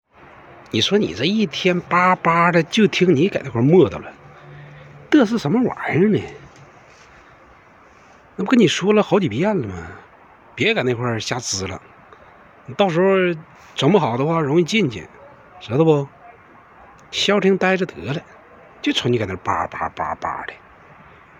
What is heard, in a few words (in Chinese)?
话说东北话